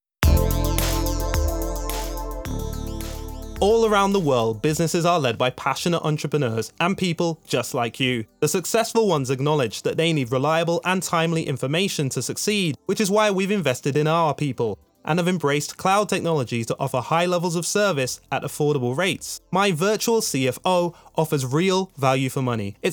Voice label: Voice-over